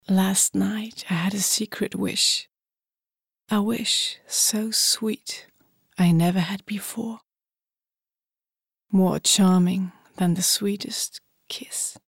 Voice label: uk englisch

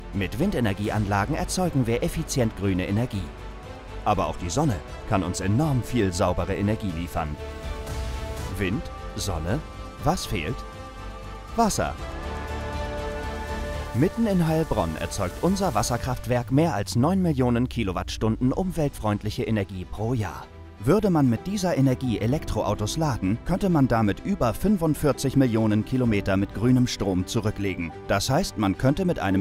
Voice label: Imagefilm; ZEAG

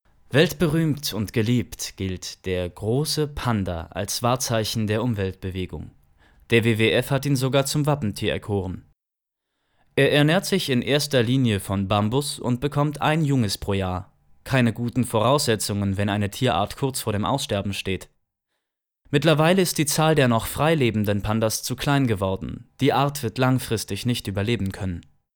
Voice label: dokumentation panda

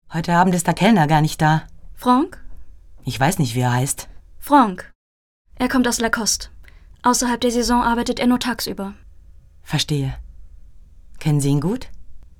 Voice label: Synchron Realfilm

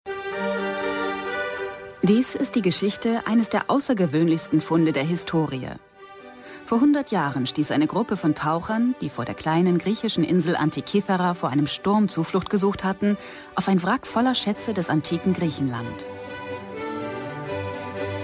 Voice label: doku kommentar; arte

